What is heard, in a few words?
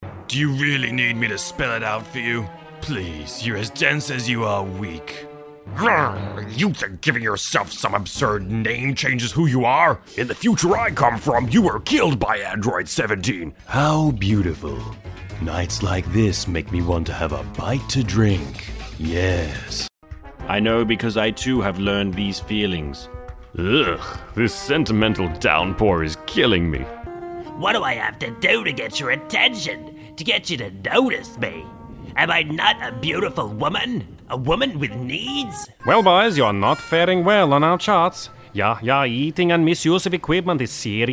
Character